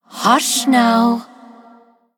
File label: Female Human Ghost Attack